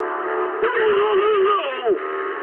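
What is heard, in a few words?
damaged pilot mood medium, speech English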